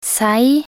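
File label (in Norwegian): "sei", Norwegian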